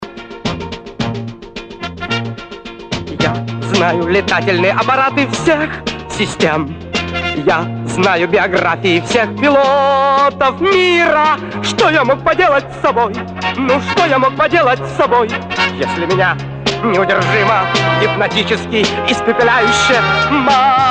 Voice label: monolog